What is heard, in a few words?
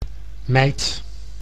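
"mijt", Dutch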